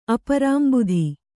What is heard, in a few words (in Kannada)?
"ಅಪರಾಂಬುಧಿ", Kannada